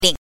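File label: "lin", Mandarin Chinese pinyin, neutral tone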